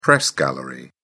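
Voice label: "press gallery", British English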